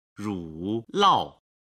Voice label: "ru lao", Chinese